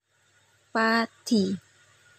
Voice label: Padi, Contoh pengucapan, Basa Jawa